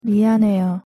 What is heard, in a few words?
"미안해요", Korean; pronunciation